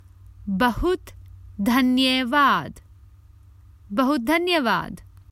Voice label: Thank you very much, Common Hindi Phrases for travel